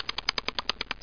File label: chatter; Broderbund Demo